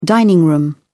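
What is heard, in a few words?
"dining room", British English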